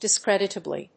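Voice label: "discreditably", English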